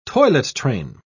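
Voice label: "toilet-train", British English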